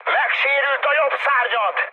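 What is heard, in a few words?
Hungarian right wing mood high, speech; War Thunder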